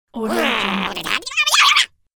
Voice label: cartoon angry quarrel whirr